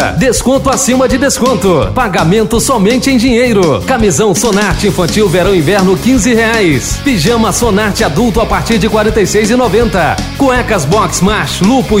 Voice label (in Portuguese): locutor sensual lingerie